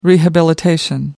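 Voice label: "rehabilitation", British English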